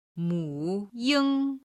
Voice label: "mu ying", Chinese